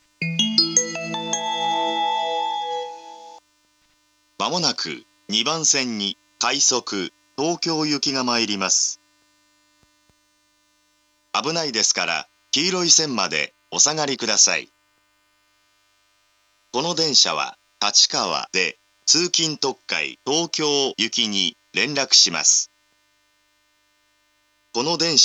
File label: rapid tokyo female, melody, line, chuo rapid; hino